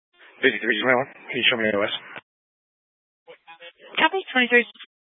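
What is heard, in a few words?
Sheriff Dispatch, scanner audio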